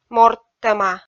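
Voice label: "морттӧма" Komi, female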